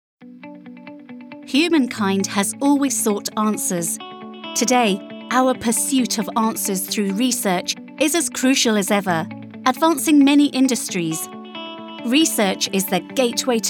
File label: Corporate promotional video; Oracle